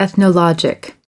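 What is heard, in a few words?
"ethnological", US English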